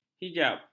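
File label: "hijab", Malay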